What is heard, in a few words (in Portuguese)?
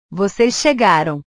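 "vocês chegaram", Portuguese; portugues/verbos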